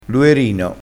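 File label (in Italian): "luerino", crespinese dialettale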